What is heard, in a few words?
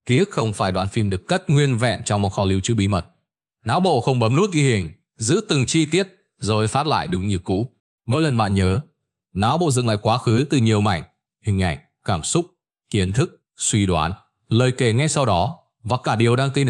narration